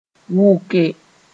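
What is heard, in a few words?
"wʋke", Mooré; vomit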